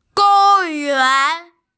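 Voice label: "gong1yuan2", Mandarin Chinese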